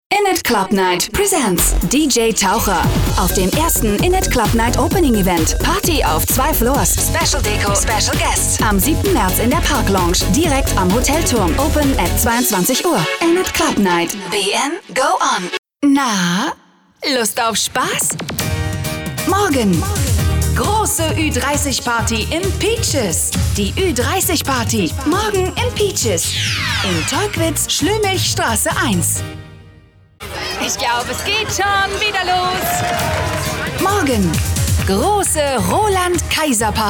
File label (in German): Werbung Dynamisch